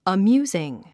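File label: "amusing", English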